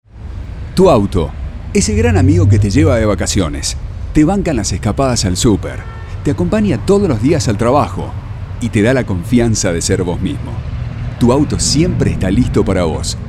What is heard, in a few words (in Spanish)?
institucional masculino; BARDHAL